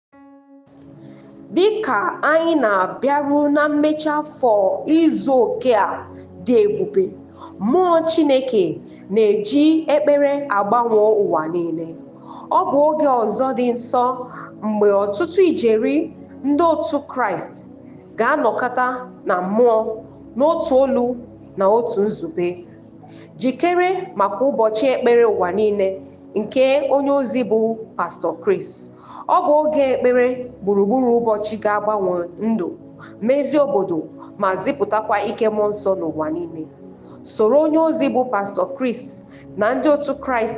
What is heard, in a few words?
igbo jingle